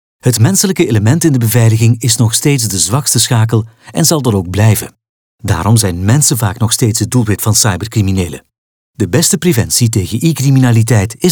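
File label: Flemish, male, e-learning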